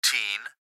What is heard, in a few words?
Gps Voice, Male